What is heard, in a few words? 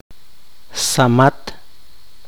poqomchi; cilantro